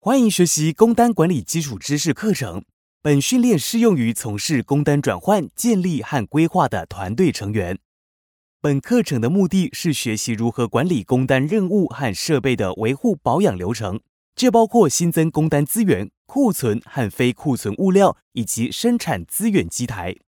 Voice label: Taiwanese Mandarin

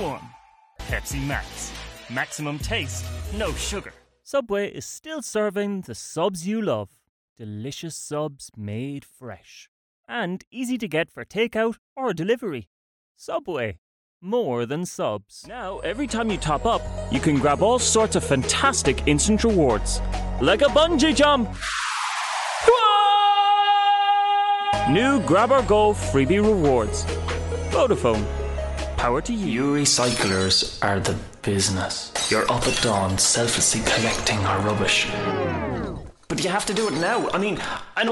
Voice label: Irish M, accent of ireland

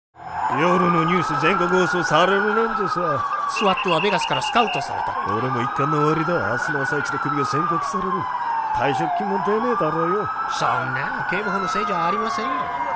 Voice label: Japanese M Dubbing

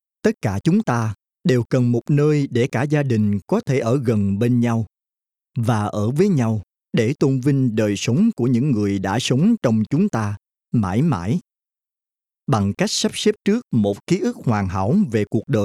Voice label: Vietnamese M Corporate

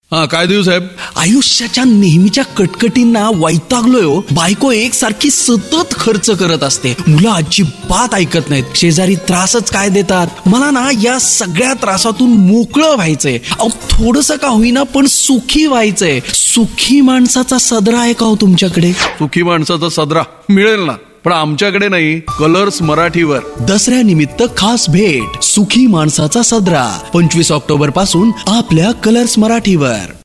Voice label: Marathi M Commercial

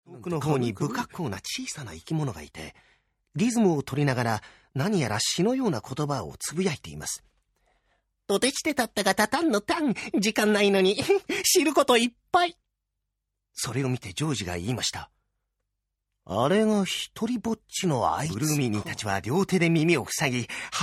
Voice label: Japanese M Audiobook

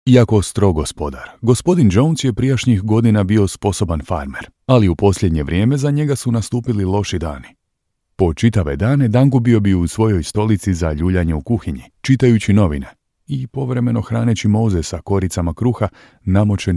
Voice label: Croatian M Audiobook; voice over

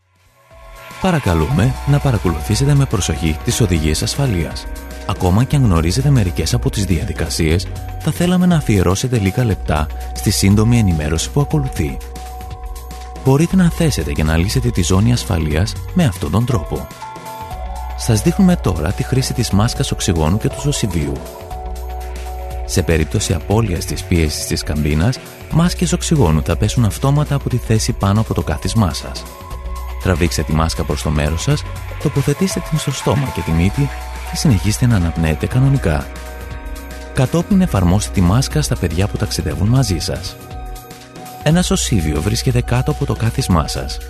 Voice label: Greek M Narration; voice over

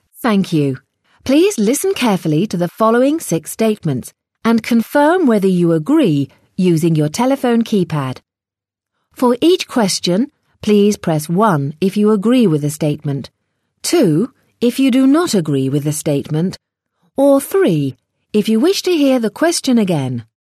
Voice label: English IVR; ivr voice prompts

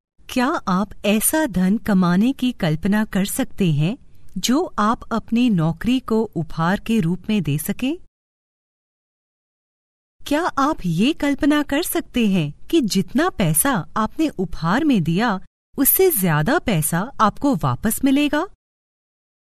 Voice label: Hindi F; voice over

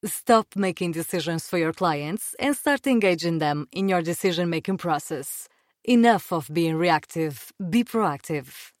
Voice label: Portuguese English F; accent of portugal

